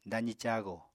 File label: "dazi cago", Apatani